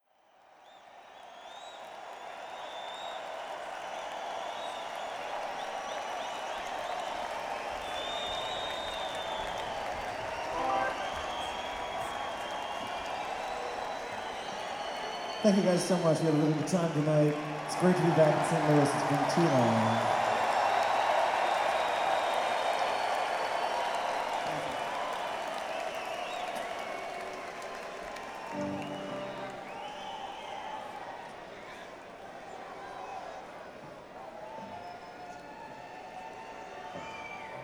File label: Banter; St Louis, MO - Chaifetz Arena